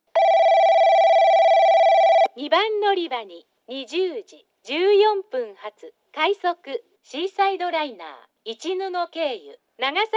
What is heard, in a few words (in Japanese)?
2番のりば接近放送; 快速シーサイドライナー 長崎行き; ハウステンボス